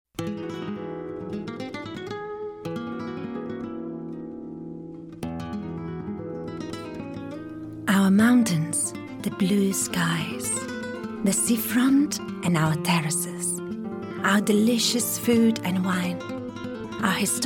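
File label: Spanish Europe Accented English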